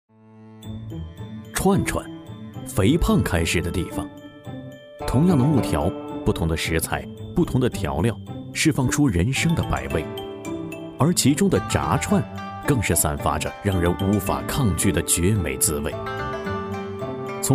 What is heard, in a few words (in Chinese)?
男 纪录片 美食纪录片 舌尖感觉; 男50-纪录片《美食纪录片》-舌尖感觉